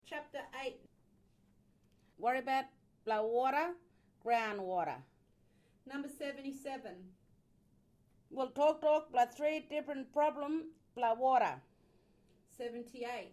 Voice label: Kriol; Fracking